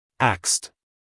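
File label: "axed", American English, male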